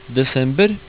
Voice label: "desember", Norwegian

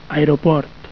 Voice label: "aeroport", catalan

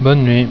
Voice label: "bonne nuit", french

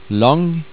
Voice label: "lang", Norwegian

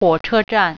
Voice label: "train station", Mandarin

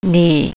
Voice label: you, mandarin

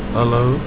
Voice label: "hello", Afrikaans

